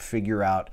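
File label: "figure out", US English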